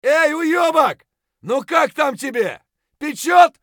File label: bear battlecry; eft